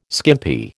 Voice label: "skimpy", American

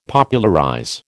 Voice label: "Popularize", American

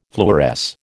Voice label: "fluoresce", American

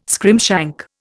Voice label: "scrimshank", Indian

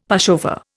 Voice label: "pushover", Indian; Dictionary

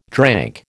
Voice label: "drank", American

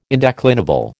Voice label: "indeclinable", American

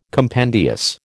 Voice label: "compendious", American

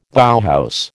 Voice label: "Bauhaus", American

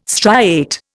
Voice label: "striate", Indian

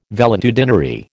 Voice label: "valetudinary", American

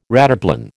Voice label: "rataplan", American

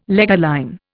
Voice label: "leger line", Indian

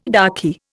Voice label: "raki", Indian

